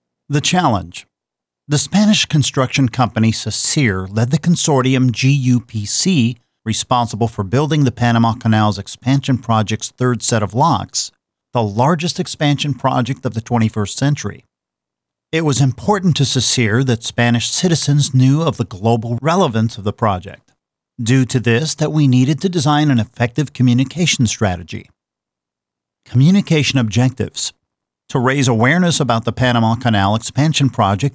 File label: Voiceover; Sacyr